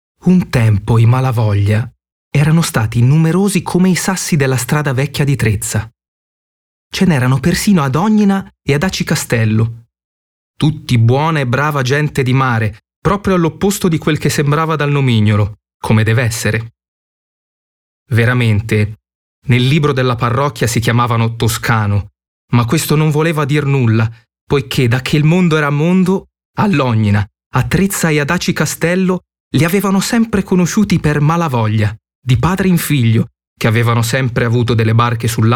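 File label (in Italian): Descrittivo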